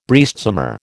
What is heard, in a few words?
"breastsummer", American